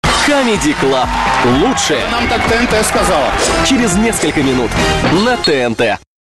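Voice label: Russian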